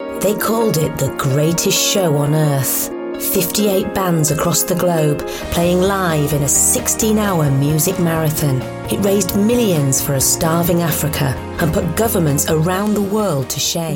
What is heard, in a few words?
documentary